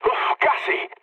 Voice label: bomb failure mood med; War Thunder